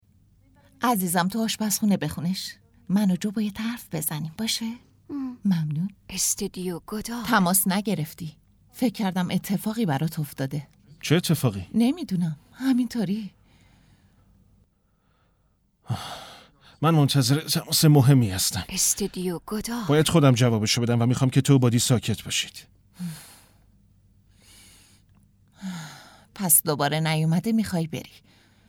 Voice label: dub